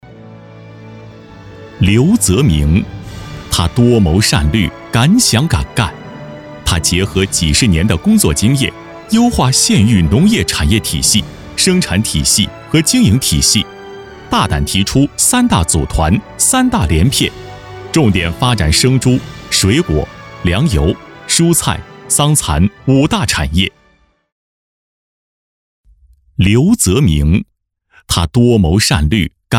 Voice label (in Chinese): 专题人物大气颁奖